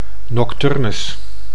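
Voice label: "nocturnus", Dutch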